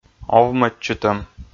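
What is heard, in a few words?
"овмӧдчытӧм", Komi, male; kpv audio dict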